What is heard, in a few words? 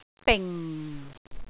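"bing4", Cantonese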